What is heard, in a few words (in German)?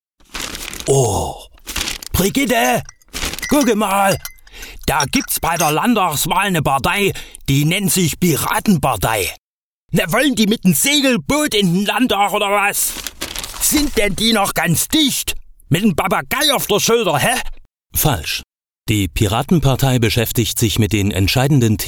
Radiospot; landtagswahl sachsen